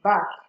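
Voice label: "back", Nigerian Pidgin, female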